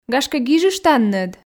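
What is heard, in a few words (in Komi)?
"гашкӧ гижыштанныд", Komi, female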